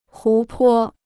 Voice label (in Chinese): "湖泊 (hú pō)", Chinese